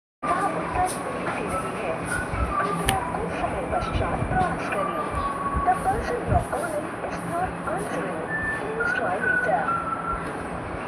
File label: call recording